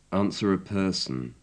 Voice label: "answer a person", English